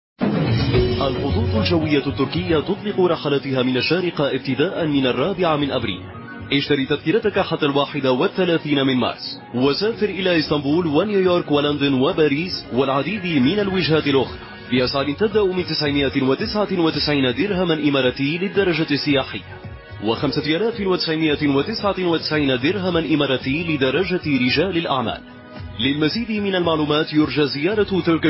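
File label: Arabic Commercial; GoLocalise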